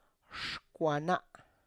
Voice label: "xkwana", my finger